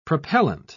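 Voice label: "propellent", British English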